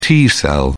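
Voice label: "t cell", British English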